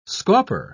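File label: "scauper", British English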